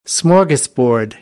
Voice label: "smorgasbord", American, English; ɔːr - ɔː

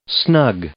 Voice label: "snug", English

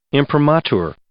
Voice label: "imprimatur", English

